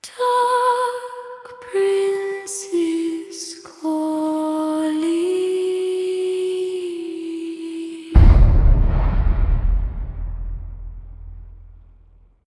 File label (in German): Werbeslogen, Frau; Dark Prince is calling